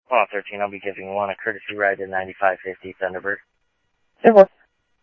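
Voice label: police department, scanner audio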